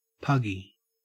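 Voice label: "puggy", Australian English; pronunciations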